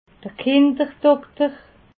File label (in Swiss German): "kinderdokter", alsace